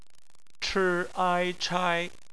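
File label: "chai", bopomofo, chinese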